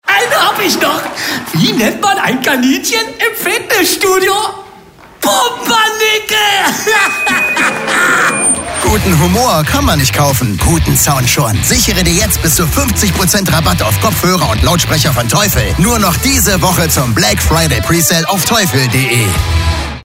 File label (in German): radiowerbung pumpernickel